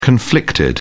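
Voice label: "conflicted", British English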